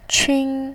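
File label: "qun", Mandarin Chinese, first tone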